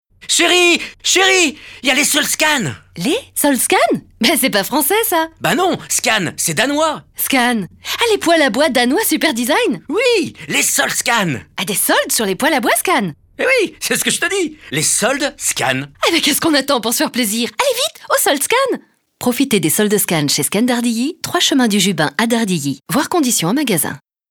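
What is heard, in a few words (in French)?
spot, spots radio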